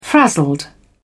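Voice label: "frazzled", British English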